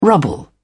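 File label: "rubble", British English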